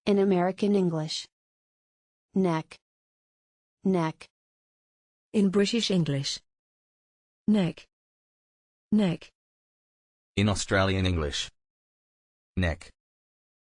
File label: neck pronunciation american british australian welsh